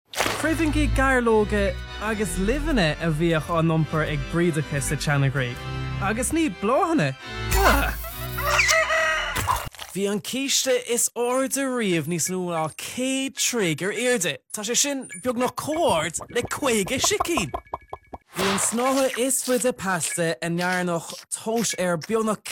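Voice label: Gaelic, male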